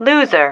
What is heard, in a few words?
"loser", female